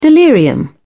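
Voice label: "delirium", British English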